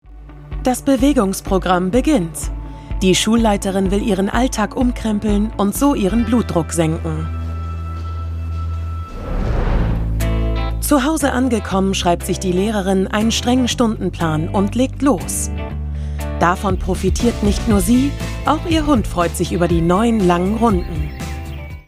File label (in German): Kommentar; NDR; Bewegungs Docs